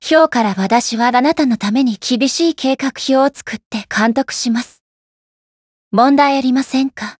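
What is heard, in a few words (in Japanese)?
intro, Japanese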